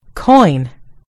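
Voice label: "coin", British English; gcompris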